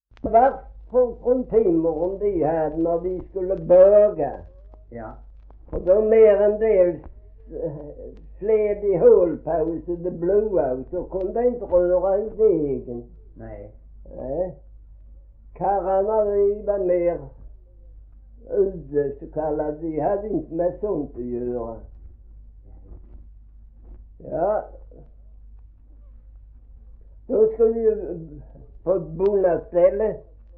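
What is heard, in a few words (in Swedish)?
Gråmanstorp Skåne, dialekter svenska sagor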